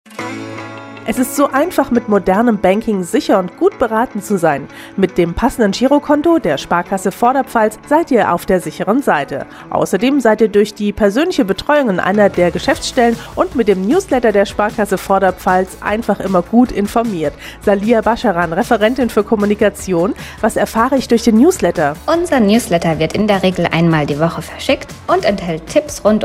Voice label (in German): Infomercial